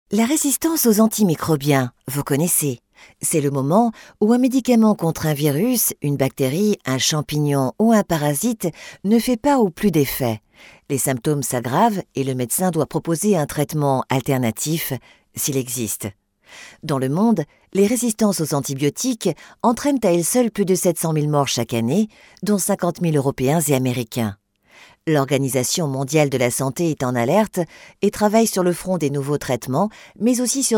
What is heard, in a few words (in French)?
commentaire